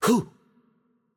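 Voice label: Hungarian attack light voice; bloom minigame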